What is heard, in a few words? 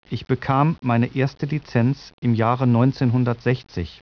SPEECH GERMAN